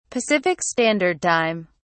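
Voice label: "pacific standard time", British English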